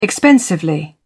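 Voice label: "expensively", English, British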